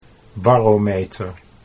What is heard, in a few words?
"barometer", Dutch